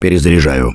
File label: Reloading Quiet, russian; Gambler